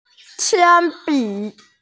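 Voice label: "qian bi", Mandarin Chinese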